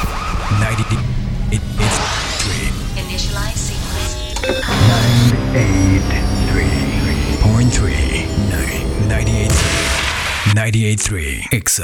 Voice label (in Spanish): DEMO VOZ